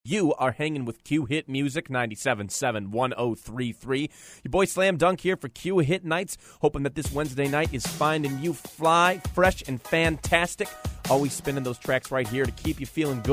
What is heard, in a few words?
FM Radio Session